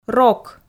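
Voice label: "рок", Komi, female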